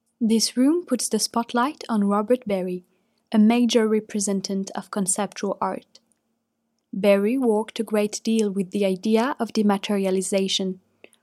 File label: GB adulte